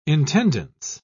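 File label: "intendance", British English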